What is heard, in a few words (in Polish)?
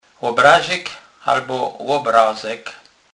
"lobrazik", kurpiow